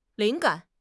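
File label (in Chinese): "灵感", Chinese; HSK6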